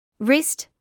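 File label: "wrist" English, British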